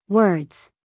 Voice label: "words", US English, female; everyone-can-use-english